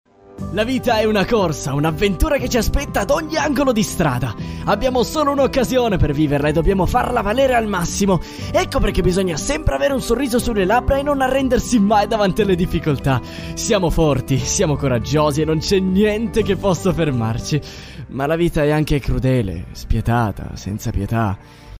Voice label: 意大利男声

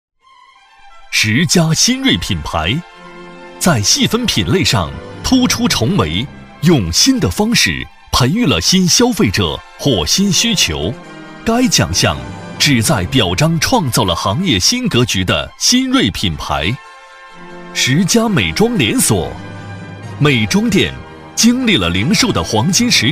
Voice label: 男 颁奖配音 力度厚重; 美妆行业颁奖; 男B2-厚重有力; 大气浑厚

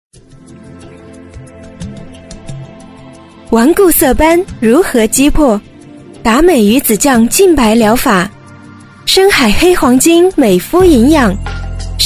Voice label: 女 广告配音 年轻科技; 甜美轻柔; 甜美亲切

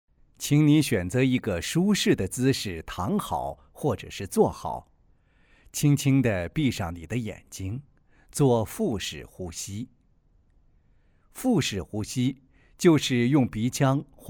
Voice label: 男 瑜伽教学 缓慢

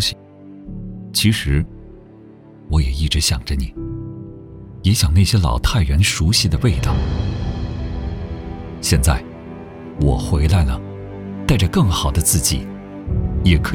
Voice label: 男-旁白走心-嘿 朋友; 男178-磁性舒缓; 质感磁性

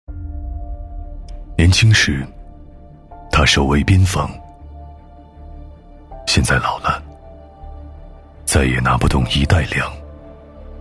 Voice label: 男 低沉走心; 微电影; 建党百年

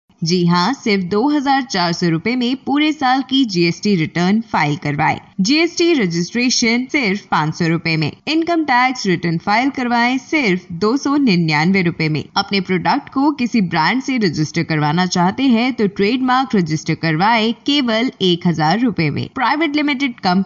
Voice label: 印地语女声

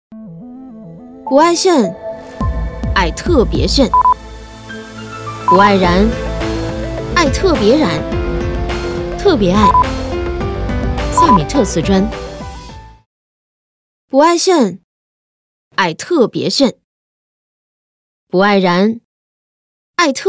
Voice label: 女, 品质广告, 瓷砖, 时尚潮酷; 甜美亲和; 科技时尚; 萨米特

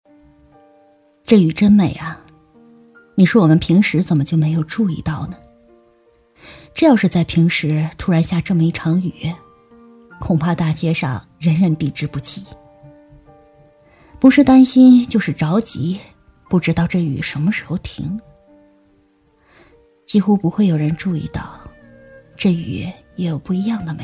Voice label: 女, 内心独白, 独白 素人; 知性稳重; 低沉走心

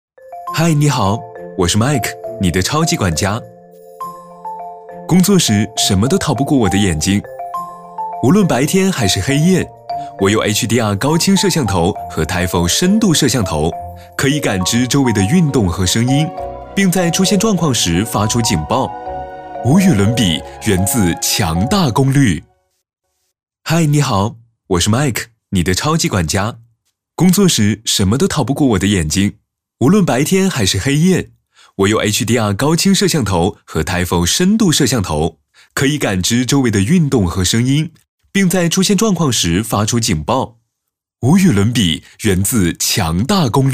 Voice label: 男 广告配音 年轻质感; 智能机器人-超级管家; 男339-年轻稳重; 科技时尚

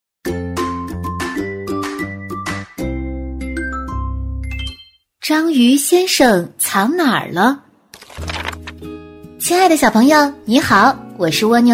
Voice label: 女 绘本故事; 可爱; 章鱼先生藏哪了

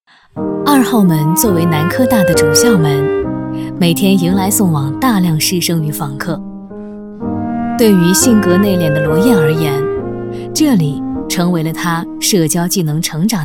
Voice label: 女, 纪录片, 叙述

